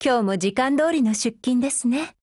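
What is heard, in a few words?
HELLO Japanese, Voice; GF Alarm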